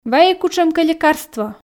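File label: "вайӧй кутшӧмкӧ лекарство", Komi, female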